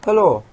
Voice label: "hello", welsh